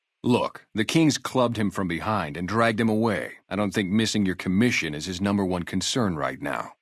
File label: male adult; FNV